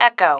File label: "echo", female